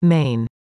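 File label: "main", English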